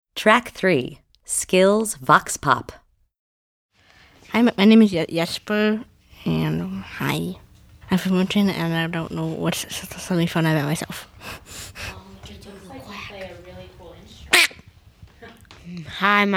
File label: Voxpop; Blind Youth Audio Project 2014